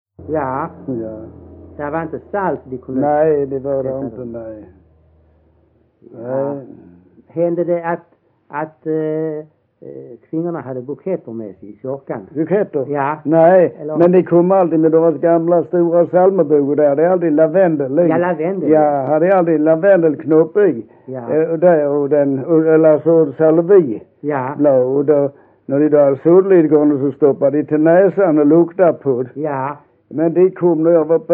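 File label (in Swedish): Vollsjö Skåne, dialekter; Folkminnen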